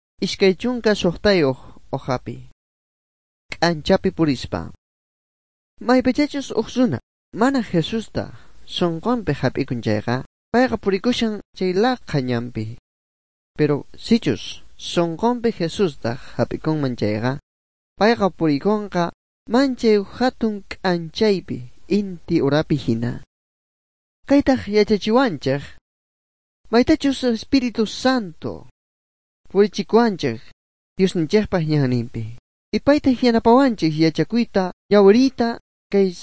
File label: good news quechua bolivian sucre; bible